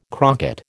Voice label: "crocket", American